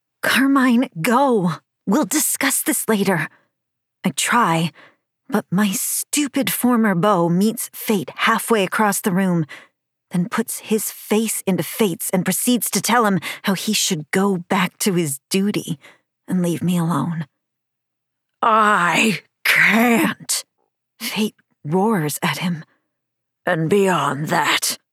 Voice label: YA Fantasy 1st p POV f m m British Accent; Fate Cant Stay